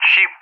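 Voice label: "ship", English, Russia; Target; DCS; Allied Flight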